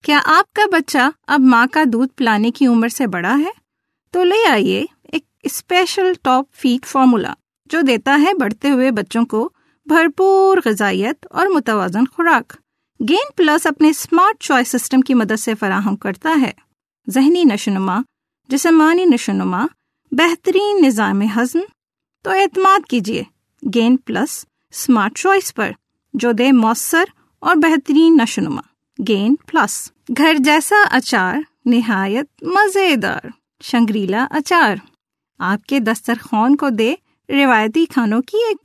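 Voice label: Urdu General; GoLocalise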